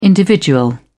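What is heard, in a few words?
"individual", British English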